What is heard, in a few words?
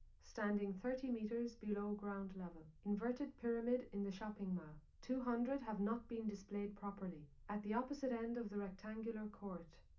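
female live recording 5cm; SASSEC07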